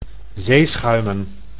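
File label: "zeeschuimen", Dutch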